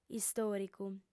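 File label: "istòricu", female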